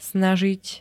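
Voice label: "snažiť", Slovak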